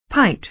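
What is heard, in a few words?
"pint", American English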